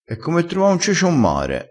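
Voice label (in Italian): "e come trova", dialettale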